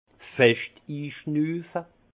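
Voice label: "feschtinschnufe", Alsatian, Haut Rhin, inspirer profondement; vin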